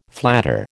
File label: "flatter", American